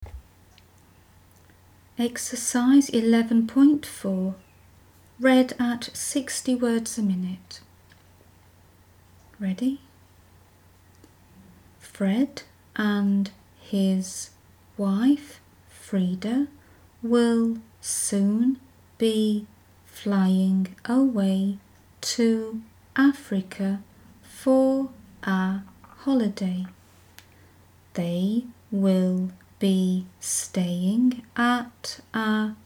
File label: 60wpm; Teeline